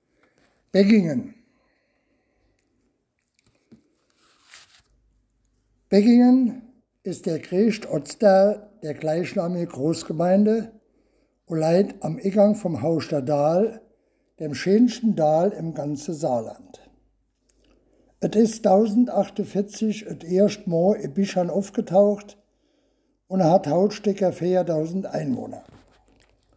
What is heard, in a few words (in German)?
Mundart